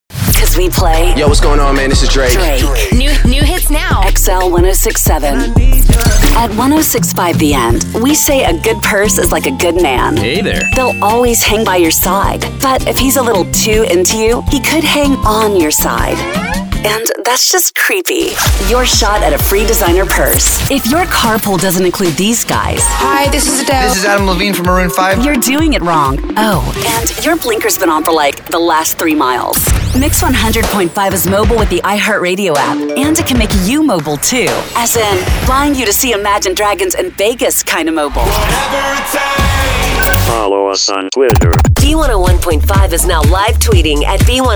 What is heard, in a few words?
radio hot ac chr, radio imaging